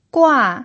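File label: "gua" fourth tone, pinyin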